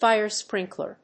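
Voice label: "Fire sprinkler", English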